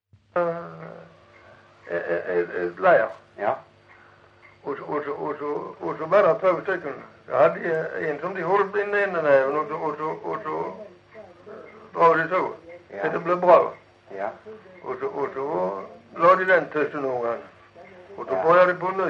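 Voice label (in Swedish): Lilla Harrie Skåne, dialekter; Folkminnen; Svenska sagor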